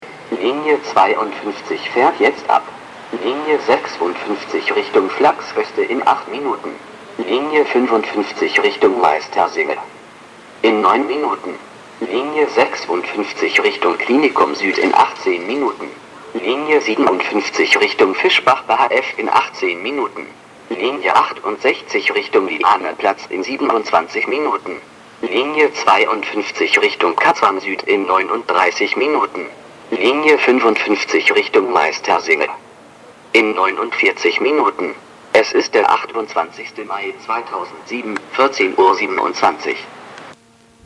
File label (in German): Durchsage